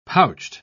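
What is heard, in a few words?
"pouched", British English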